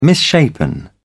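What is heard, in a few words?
"misshapen", British English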